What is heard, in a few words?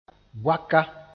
"boaka", moore; half